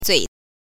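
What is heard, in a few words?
"zui", Mandarin Chinese syllable, neutral tone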